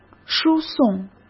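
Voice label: "输送", Mandarin Chinese; chinese-flashcards; hsk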